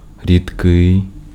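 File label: Ukrainian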